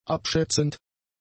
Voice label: "abschätzend", German; adjectives